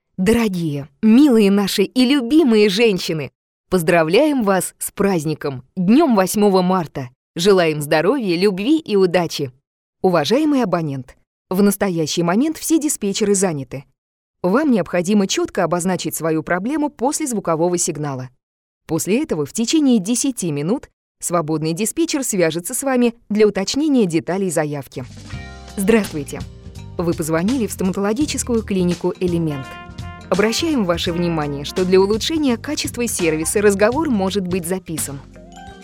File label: demo ivr